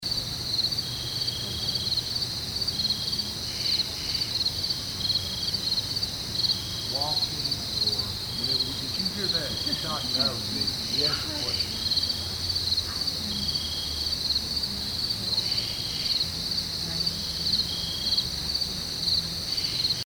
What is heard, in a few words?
Unexplained Male Voice, cemetery; Case 026 Hebrew-Bryant Cemetery II; Jewish part of cemetery; Yeah